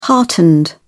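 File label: "heartened", British English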